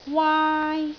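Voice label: "waai1", Cantonese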